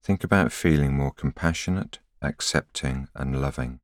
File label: voiceover